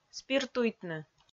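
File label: "спиртуйтны", Komi, female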